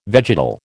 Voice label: "vegetal", American

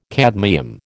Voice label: "cadmium", American

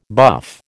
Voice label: "buff", American

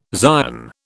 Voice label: "Zion", British

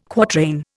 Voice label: "quatrain", Indian

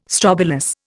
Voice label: "strobilus", Indian

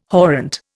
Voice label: "horrent", Indian

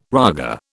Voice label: "raga", British

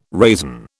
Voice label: "raisin", British

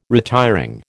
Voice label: "retiring", American

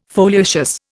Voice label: "foliaceous", Indian

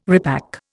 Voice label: "repack", Indian

